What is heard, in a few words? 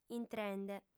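"intrende", female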